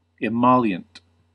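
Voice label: "emollient", US English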